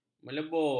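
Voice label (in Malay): "melebur", Malay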